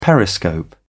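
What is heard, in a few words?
"periscope", British English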